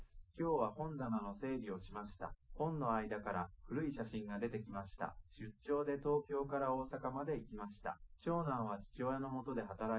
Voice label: male liverec